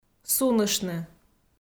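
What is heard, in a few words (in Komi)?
"суныштны", Komi, female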